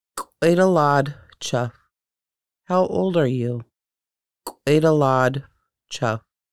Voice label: k̓ʷidəladxʷ cəxʷ How old are you, conversational Lushootseed; Conversational Lushootseed: Lessons 1-5